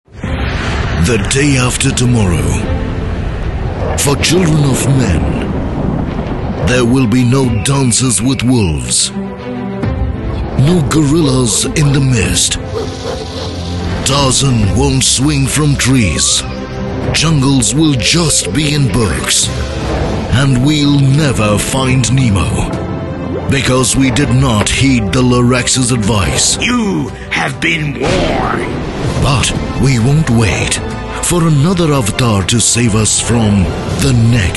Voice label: Commercial